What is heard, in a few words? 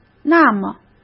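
"那么", Mandarin Chinese; chinese-flashcards; hsk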